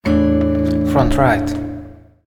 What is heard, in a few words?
audio channel front right